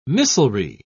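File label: "missilery", British English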